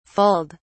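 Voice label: "fulled", British English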